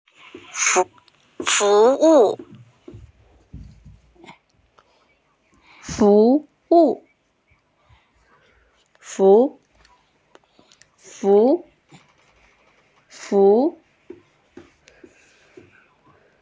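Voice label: "service", Mandarin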